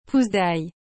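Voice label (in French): "pousse d ail", French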